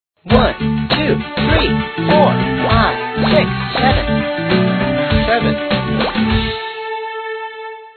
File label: Numbers (Seven); Sesame Street Learn Play Grow Preschool